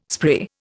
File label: "spree", Indian